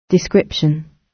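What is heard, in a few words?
"description", British English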